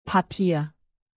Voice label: papier, native; alphabet de

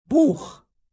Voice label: buch, native; alphabet de

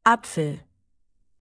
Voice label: apfel, alphabet de native

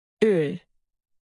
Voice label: öl, native; alphabet de

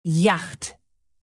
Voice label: yacht, native; alphabet de

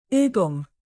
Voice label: übung, native; alphabet de

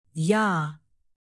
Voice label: jahr, alphabet de native

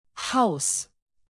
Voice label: haus, alphabet de native

